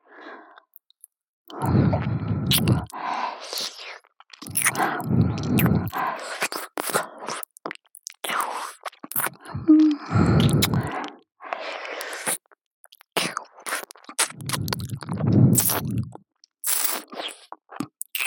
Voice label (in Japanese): 白衣の天使の目覚ましボイス